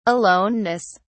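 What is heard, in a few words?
"aloneness", British English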